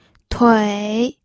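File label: "tui3", Mandarin Chinese, pinyin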